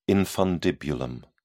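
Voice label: "infundibulum", British English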